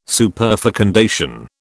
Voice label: "superfecundation", British